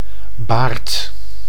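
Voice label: "baard", Dutch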